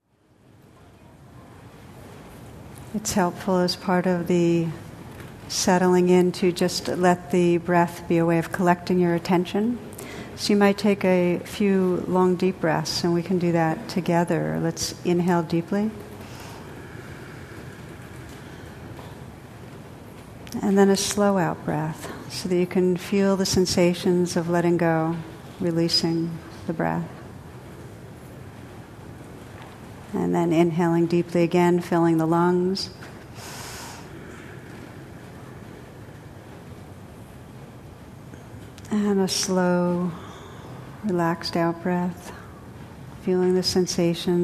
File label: guided meditation with the rain; IMCW